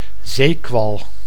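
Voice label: "zeekwal", Dutch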